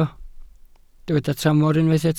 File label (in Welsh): "tramor", Welsh